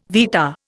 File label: "vitta", Indian